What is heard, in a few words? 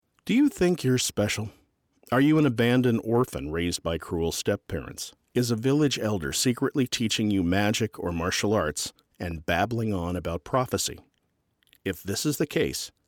PSA Vocal; A warning for Gamers; CHOSEN ONE SYNDROME PSA